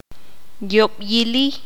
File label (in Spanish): "feo", qanjobal